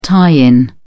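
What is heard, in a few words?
"tie-in", British English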